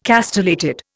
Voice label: "castellated", Indian

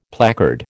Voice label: "placard", American

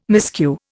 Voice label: "miscue", Indian

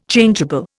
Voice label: "Changeable", Indian

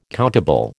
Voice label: "countable", American

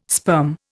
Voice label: "sperm", Indian

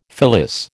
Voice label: "fillis", American

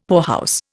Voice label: "poorhouse", Indian; Dictionary

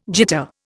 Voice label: "jitter", Indian

